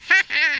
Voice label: "fatality", Brooklyn; Worms Armageddon